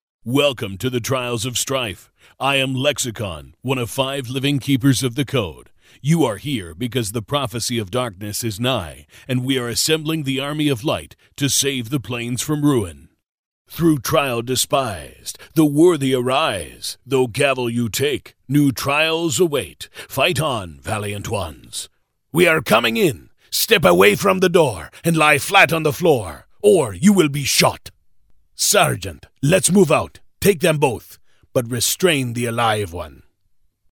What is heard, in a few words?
male canadian voice over character